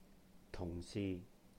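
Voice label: "tùhng-sih", Cantonese; colleague; 同事; tung4si6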